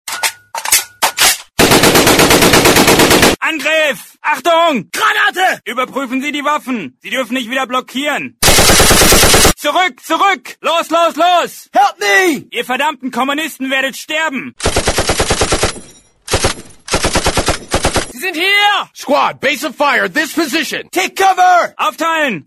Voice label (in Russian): nemeckaya rech avtomatnaya ochered lay sobak